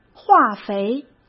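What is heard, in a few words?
"化肥", Mandarin Chinese; chinese flashcards; hsk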